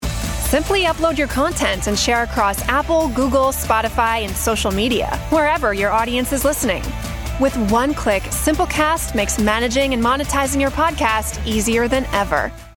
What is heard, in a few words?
Commercial; Simplecast